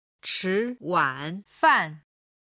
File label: "chiwanfan", Chinese